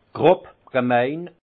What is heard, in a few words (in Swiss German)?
"grob", alsace; vin; grossier